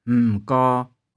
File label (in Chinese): "m3kau3", 玉環